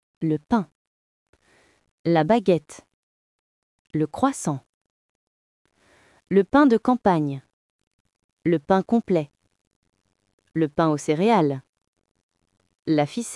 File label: bread in french